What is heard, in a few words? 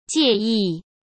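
"介意", Mandarin Chinese; hsk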